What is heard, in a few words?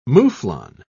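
"mouflon", British English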